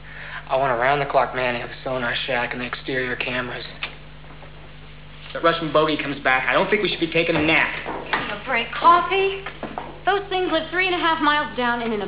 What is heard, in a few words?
russian